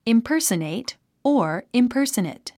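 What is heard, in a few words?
"impersonate", English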